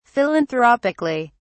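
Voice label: "philanthropically", British English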